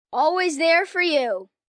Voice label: Always There For U, Sales Pitch, 1 boy, 10 yrs; Talking Sound Effects